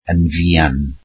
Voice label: "soon", Welsh